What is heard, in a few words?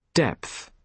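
"depth", British English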